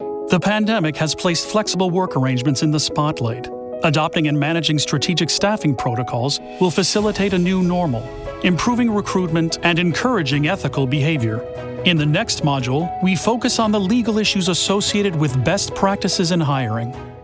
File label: voice over actor e learning